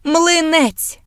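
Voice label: "crepe", British English; gcompris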